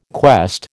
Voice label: "quest", American